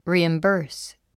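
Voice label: "reimburse", English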